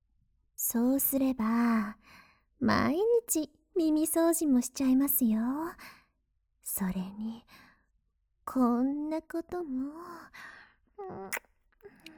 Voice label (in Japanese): 姉 耳舐め, ASMR; 手コキ